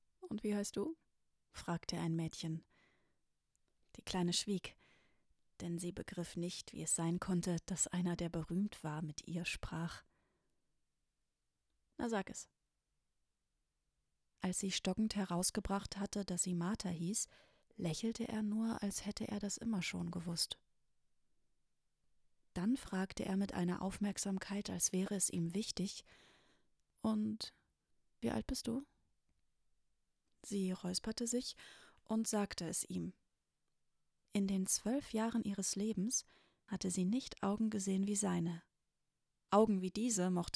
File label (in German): Hoerbuch; Tyll